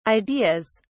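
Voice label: "ideas", British English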